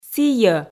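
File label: "сійӧ" Komi, female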